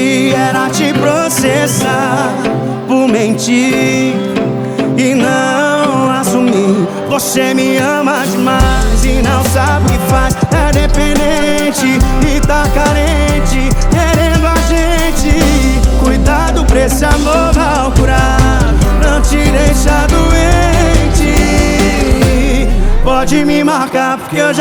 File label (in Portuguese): locutor a voz magnifica